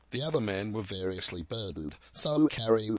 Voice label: Phonation SL Resynthesis; VowelBender; Source Formant examples

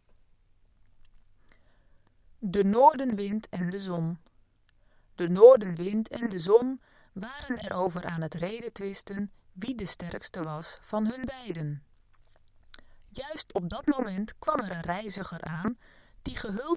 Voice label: Pulse Burg Resynthesis, North Wind and the Sun; VowelBender

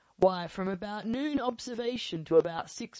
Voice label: DePulse Robust Resynthesis; VowelBender; Source Formant examples

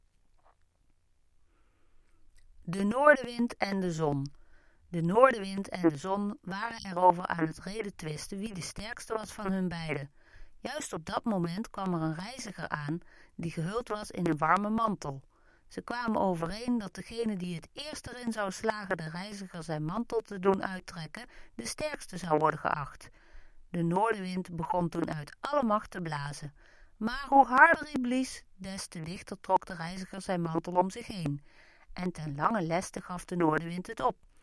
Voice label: Pulse Burg Resynthesis, North Wind and the Sun

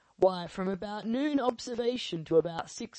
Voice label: Phonation Robust Resynthesis; VowelBender; Source Formant examples

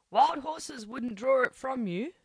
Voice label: LPC Burg Resynthesis

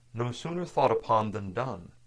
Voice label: LPC Burg Resynthesis; VowelBender; Parallel Audiobook Corpus Treasure

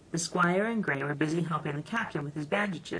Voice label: LPC Burg Resynthesis

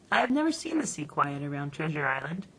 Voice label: LPC Burg Resynthesis; VowelBender; Parallel Audiobook Corpus Treasure

